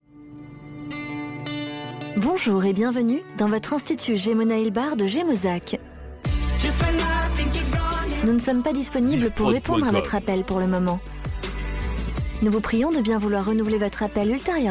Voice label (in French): Repondeur, telephonie svi; Gemo Nail Bar Gemozac